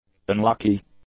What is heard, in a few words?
"unlucky", English; adjectives